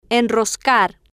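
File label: "enroscar", Spanish, female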